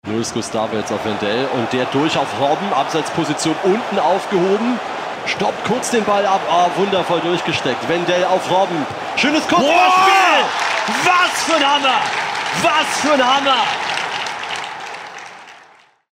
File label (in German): Berlin Reporter Fussball Hot